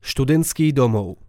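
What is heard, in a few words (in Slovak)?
"studentsky domov", Slovak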